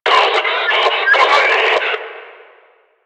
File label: Player Spotted Attack, Barks Battle; Warframe; Lasrian; Lasrian Enemy A